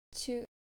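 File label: "chu", Japanese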